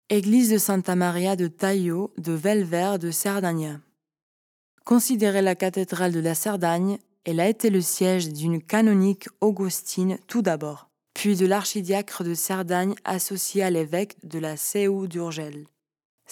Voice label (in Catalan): locucio frances; santa maria tallo